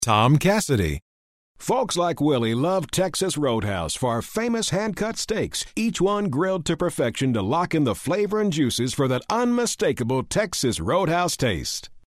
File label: folksy